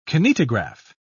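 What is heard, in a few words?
"kinetograph", British English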